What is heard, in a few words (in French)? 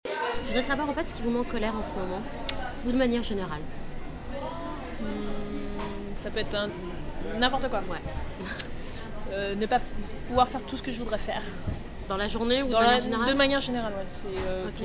paroles colere temps enfants